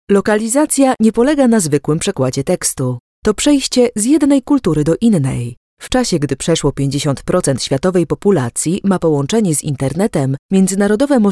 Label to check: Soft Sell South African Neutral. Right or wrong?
Wrong